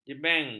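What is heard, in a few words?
"jebeng", Malay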